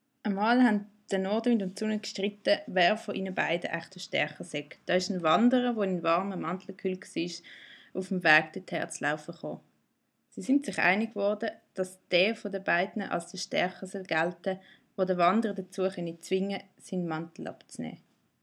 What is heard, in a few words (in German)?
Nordwind Dialekt A